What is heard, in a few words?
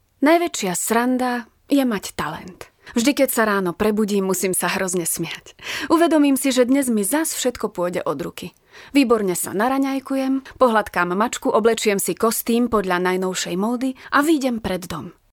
slovakian voiceover locutora eslovaca